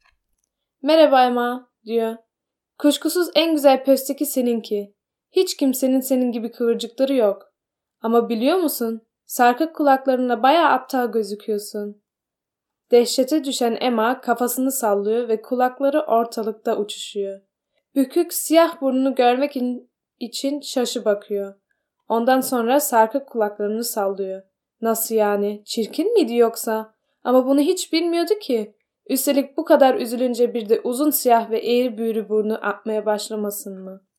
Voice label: türkisch

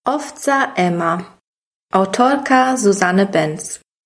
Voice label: Track Titel polnisch

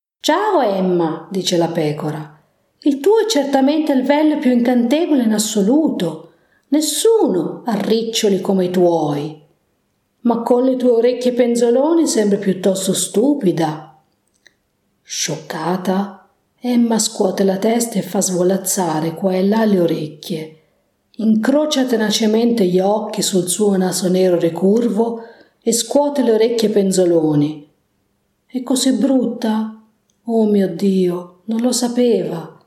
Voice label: italienisch

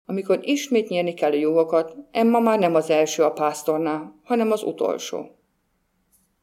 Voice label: ungarisch

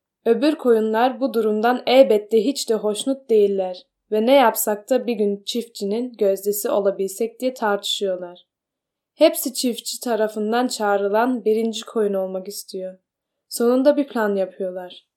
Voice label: türkisch

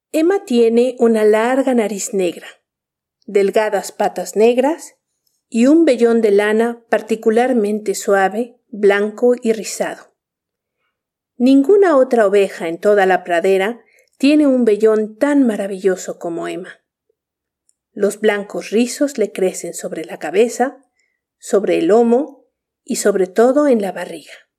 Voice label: spanisch

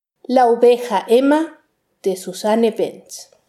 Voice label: Track Titel spanisch

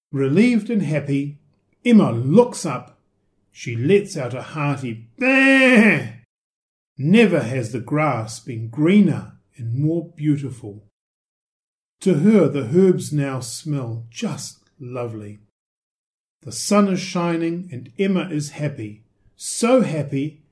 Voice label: englisch

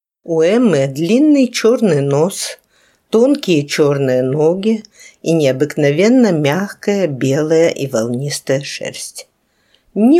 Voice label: russisch

